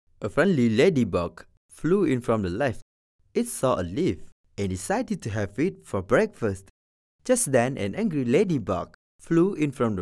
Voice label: Male English